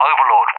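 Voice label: "Overlord", English, UK; CallSign; DCS; Allied Flight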